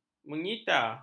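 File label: "menyita", Malay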